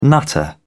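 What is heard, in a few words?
"nutter", English, British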